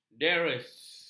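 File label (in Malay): "derris", Malay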